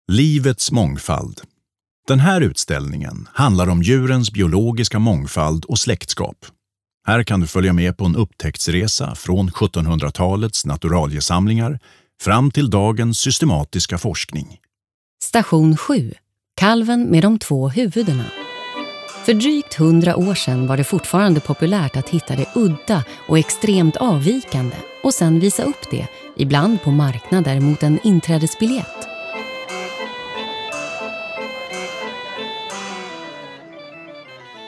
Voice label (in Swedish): audioguide swe; kalven